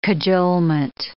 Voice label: "cajolement", English